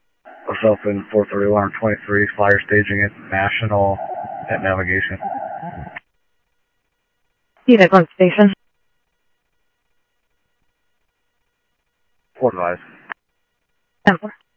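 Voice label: Sheriff East County, scanner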